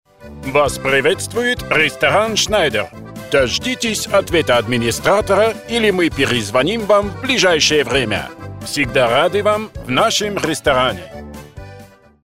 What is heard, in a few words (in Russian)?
Голосовое приветствие ресторана; ДИКТОРЫ ДЛЯ IVR